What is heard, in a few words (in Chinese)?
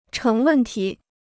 "成问题", Chinese